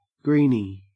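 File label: "greeny", Australian English; pronunciations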